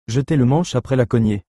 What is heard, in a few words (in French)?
jeter le manche après la cognée, French